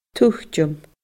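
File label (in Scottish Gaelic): "tuiteam", Scottish Gaelic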